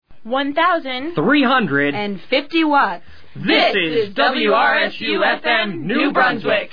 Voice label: legal id male female; 1350 Watts